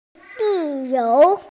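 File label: "zi4you2", Mandarin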